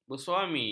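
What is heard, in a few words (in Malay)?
"bersuami", Malay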